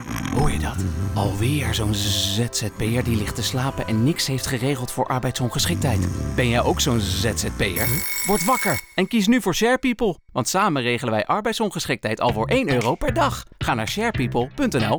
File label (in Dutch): radiospotje; SharePeople - ZZZZP-ers